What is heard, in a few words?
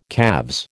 "calves", American